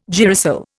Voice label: "girasol", Indian

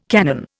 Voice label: "cannon", Indian

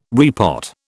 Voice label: "repot", British

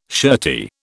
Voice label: "shirty", British

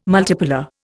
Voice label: "multipolar", Indian

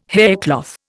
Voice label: "haircloth", Indian

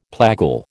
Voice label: "plagal", American

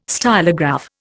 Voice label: "stylograph", Indian

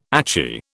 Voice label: "achy", British

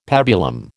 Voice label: "pabulum", American

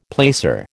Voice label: "placer", American

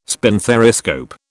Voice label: "spinthariscope", British

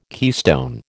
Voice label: "keystone", American

